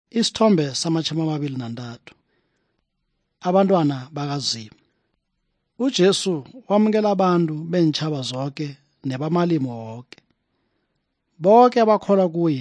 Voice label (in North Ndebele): good news ndebele southern, drama